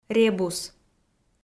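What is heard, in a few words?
"ребус", Komi, female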